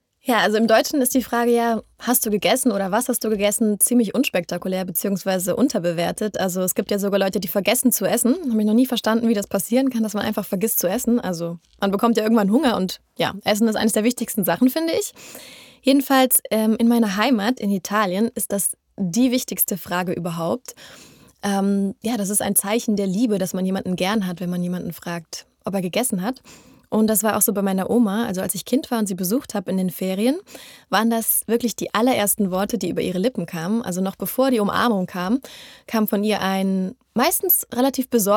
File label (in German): Natuerliche Stimme, sprecherin